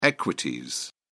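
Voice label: "equities", British English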